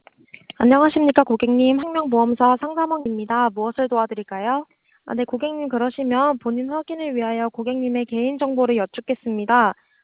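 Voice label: Korean narrowband, speech; ko-KR NarrowbandModel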